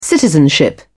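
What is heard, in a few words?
"citizenship", British English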